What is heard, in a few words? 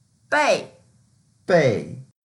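"bei4", Mandarin Chinese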